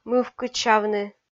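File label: "мывкыдсявны", Komi, female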